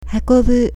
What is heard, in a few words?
"hakobu", Japanese